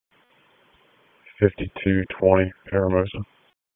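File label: Police Downtown District Dispatch, p25; Raleigh; wake p25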